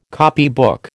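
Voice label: "copybook", American English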